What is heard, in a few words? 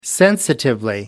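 "sensitively", British English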